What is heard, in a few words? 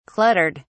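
"cluttered", English, British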